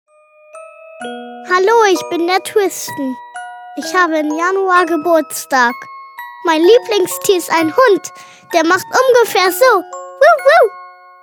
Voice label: Selbstvorstellung Kinder

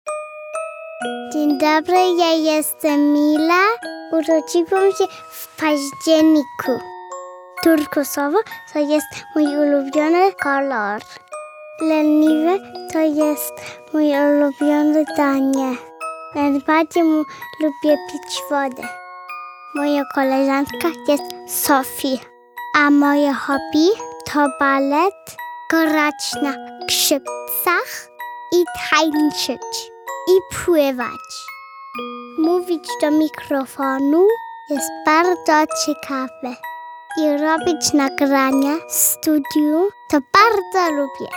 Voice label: Selbstvorstellung POL

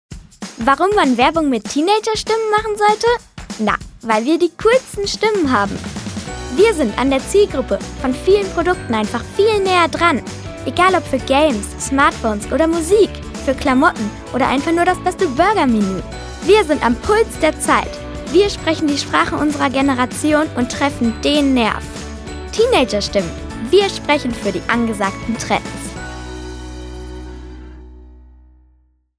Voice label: Werbung DE

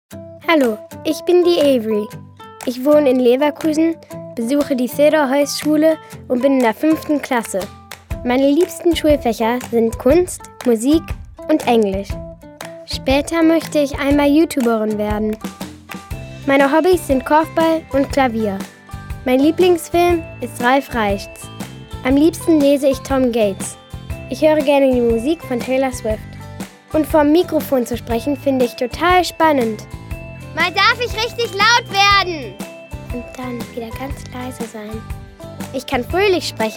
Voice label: Selbstvorstellung DE

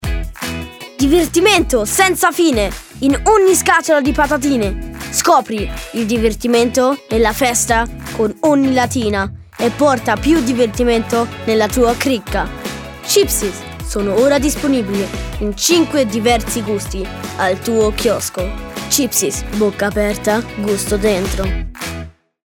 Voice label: Werbung IT; Chipsies

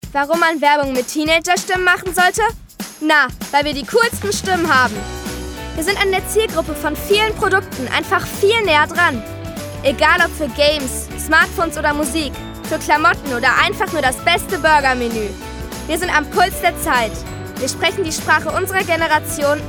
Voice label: Werbung Teenagerstimmen

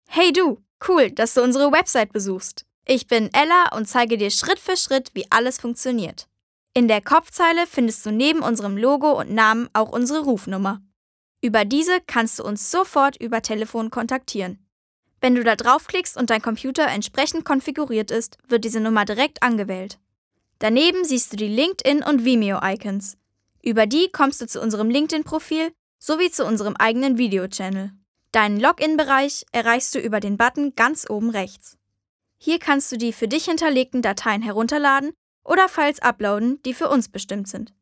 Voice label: Webseitenintro

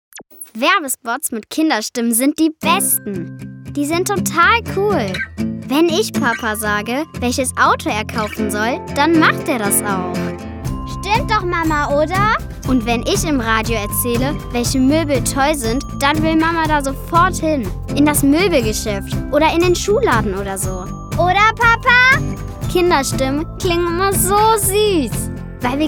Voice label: Werbung

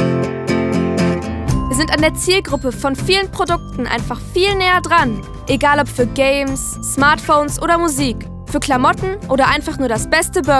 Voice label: Werbung Kinderstimmen

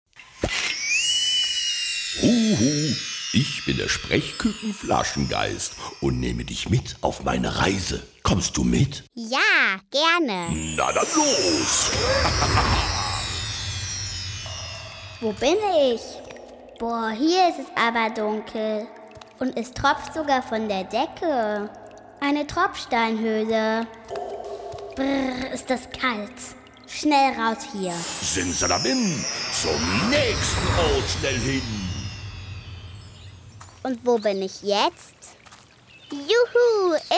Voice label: Hörspiel

